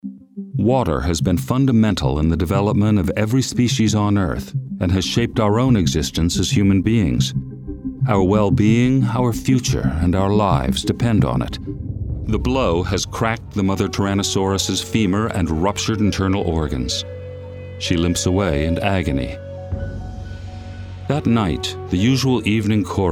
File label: Narration